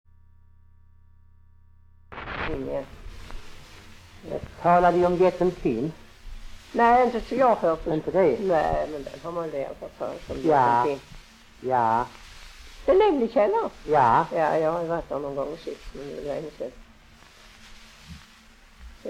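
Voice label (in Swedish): Lunds stad Skåne, dialekter; Folkminnen; Svenska sagor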